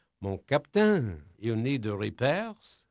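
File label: French male, voice; Pirates Of The Caribbean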